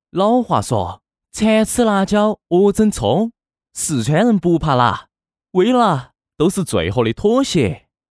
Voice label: 四川方言 男 四川话 方言四川人不怕辣; 方言配音

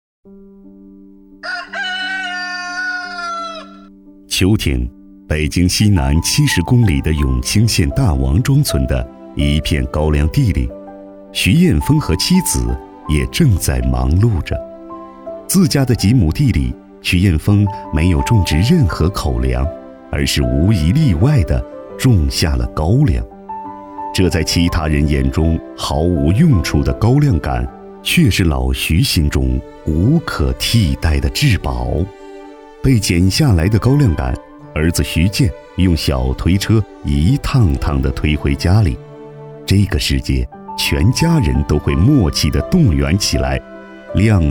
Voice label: 男 国 大音; 田野里的手工艺大师（试样）

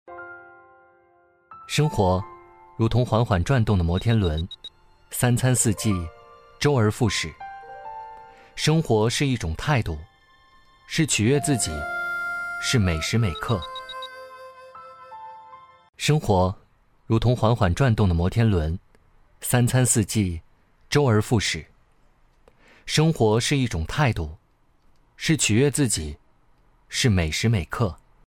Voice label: 男 素人 走心 生活; 素人风格男声

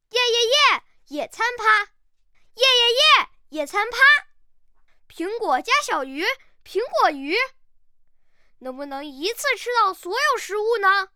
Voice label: 男 男真童; 宇宙

